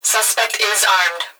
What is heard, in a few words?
Emergency Human Female Voice Police Dispatch Suspect Is Armed; emergency effects